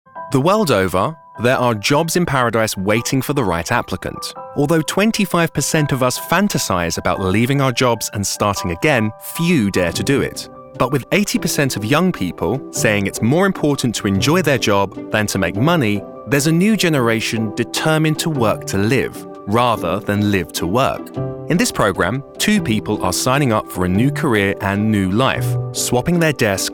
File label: Adult non fiction